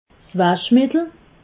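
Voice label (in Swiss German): "waschmittel", Alsatian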